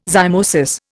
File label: "zymosis", Indian